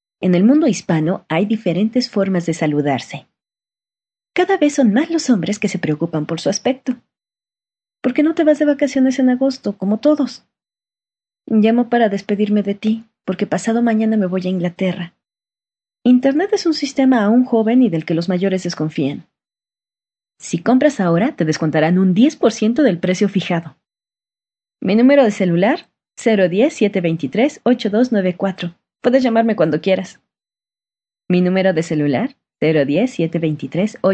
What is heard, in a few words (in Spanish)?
Spanish South America, F